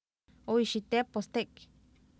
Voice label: ooixitEepostEk, Oapan minimal pairs female; Oapan minimal pairs 1998